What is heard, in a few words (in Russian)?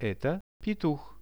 eto petuh, Russian; Это петух; birds; RUSSIAN VISUAL DICTIONARY